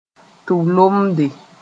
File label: "tʋb-loomde", moore; lobe